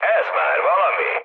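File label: Hungarian trick mood low, speech; War Thunder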